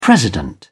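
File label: "president", British English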